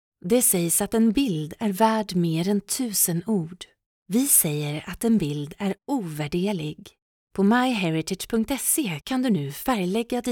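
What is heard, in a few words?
Swedish, female, commercial emotional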